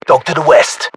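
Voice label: threat dog west, British; localized english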